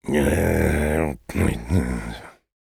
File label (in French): negative vocalization, fr; Engineer